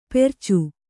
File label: "ಪೆರ್ಚು", Kannada